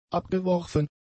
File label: "abgeworfen", German; adjectives